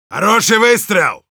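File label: "nice shot", Russian; «Хороший выстрел!»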